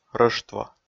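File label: "рӧштво", Komi, male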